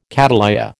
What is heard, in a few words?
"cattleya", American